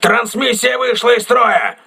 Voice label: voice message transmission damaged, crew ships russian